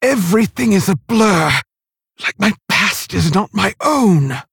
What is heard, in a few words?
Female Orc; Story Hero Garona; Story Valeera Mission6 Exchange